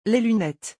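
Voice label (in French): "les lunettes", French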